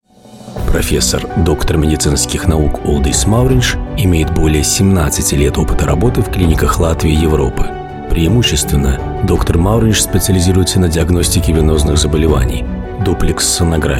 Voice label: russian voice over male narration